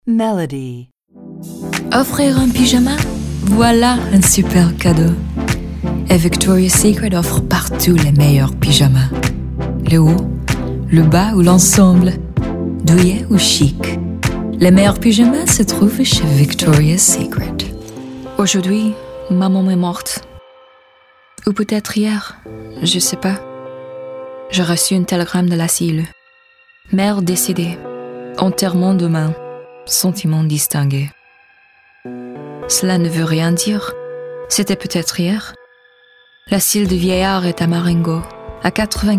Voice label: French Showcase